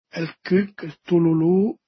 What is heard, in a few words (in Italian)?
"cuch", Dialetto di Albosaggia; Tululùu; Gufo